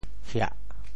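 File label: "hiah4", Teochew; 潮州; 戏歇棚拆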